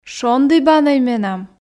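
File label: шондібанӧй менам, Komi, female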